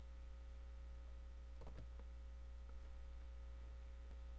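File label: "lick", spoken word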